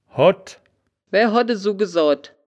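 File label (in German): "hodd", wittlicher woerterbuch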